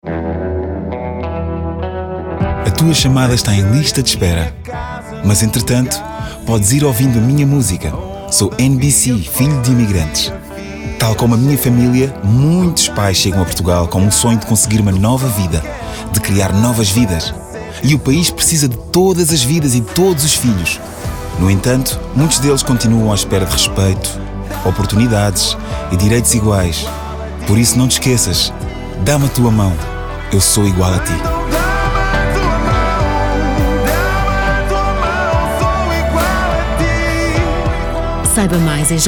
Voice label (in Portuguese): telefone; Mensagem